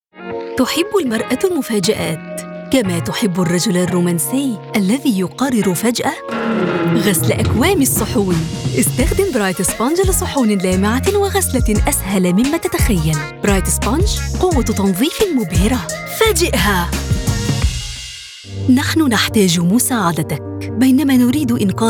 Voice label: Arabic commercial

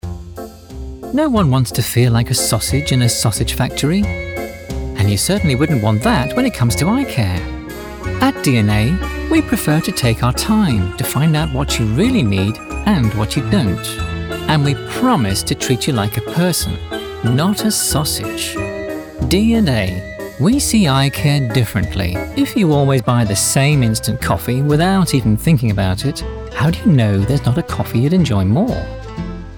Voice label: Commercial

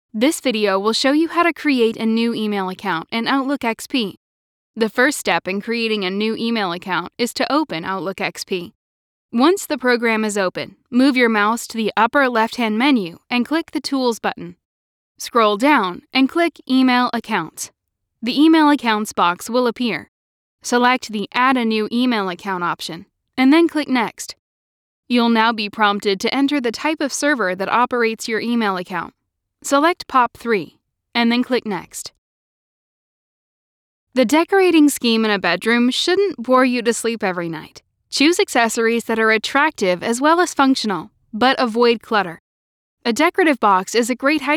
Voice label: Elearning

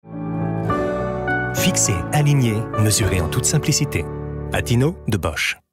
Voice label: commercial tv; bosch atino

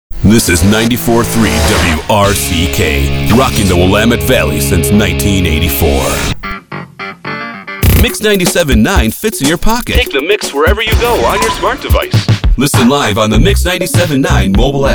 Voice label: Imaging

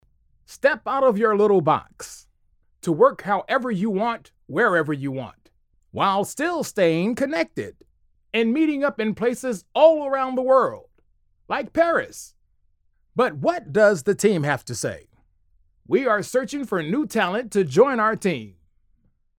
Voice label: 50s Anncr

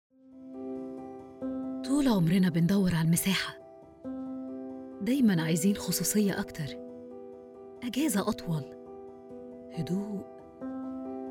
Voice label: Narration

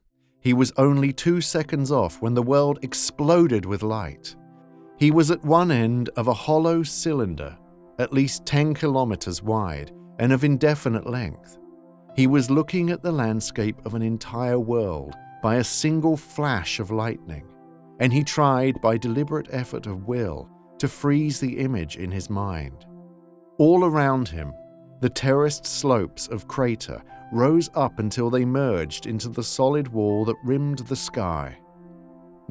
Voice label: Science Fiction Reel Mood and Conversation

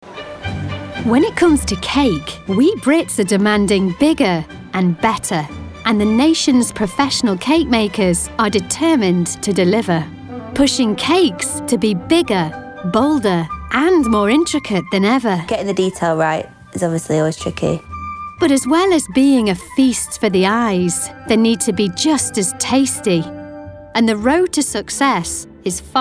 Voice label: documentary montage

